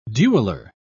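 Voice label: "dueler", British English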